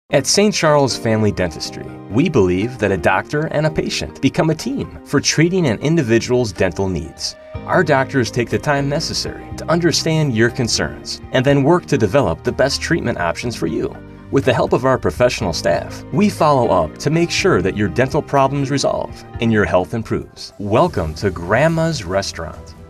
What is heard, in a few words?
On-Hold Compilation